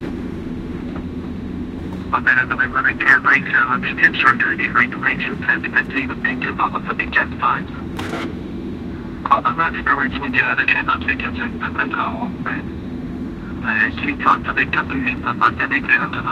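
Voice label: an old portugese air traffic, aviation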